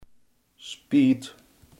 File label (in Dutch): "spijt", Limburgs